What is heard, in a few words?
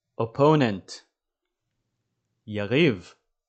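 "opponent" English, "יריב" Hebrew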